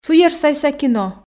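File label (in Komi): "суйӧрсайса кино", Komi, female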